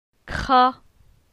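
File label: "Cra", French; phonetique; la syllabe a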